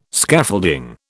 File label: "Scaffolding", British